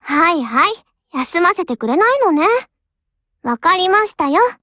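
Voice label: OPERATION BEGIN Japanese; GF Alarm; UMP45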